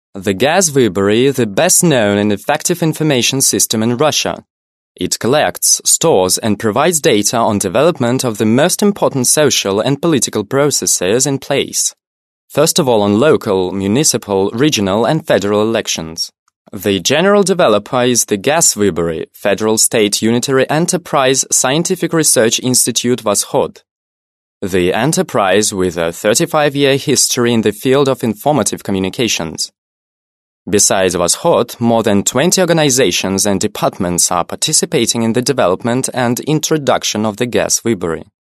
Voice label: british english male

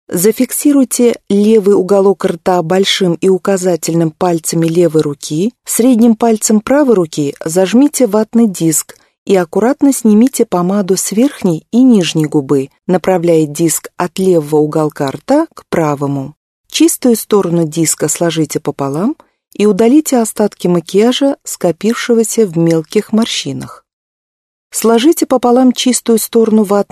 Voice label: russian female

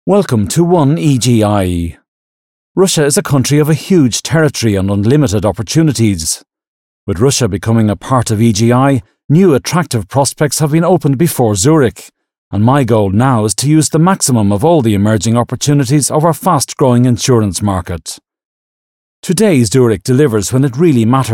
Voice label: british english male

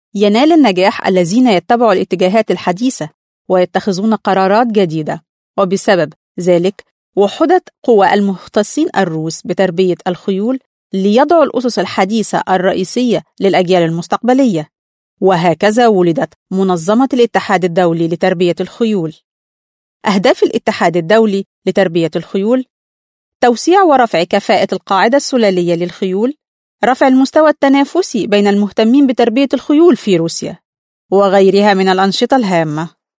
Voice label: arabic female

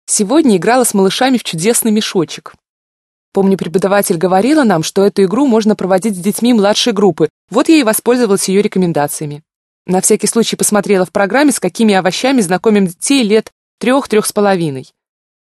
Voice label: russian female

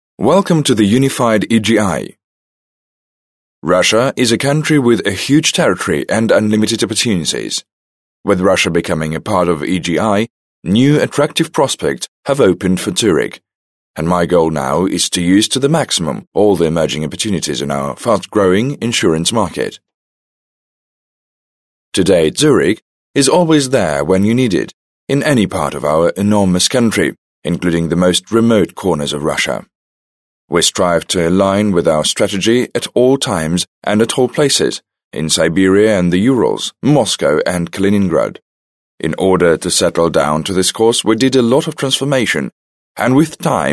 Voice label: british male

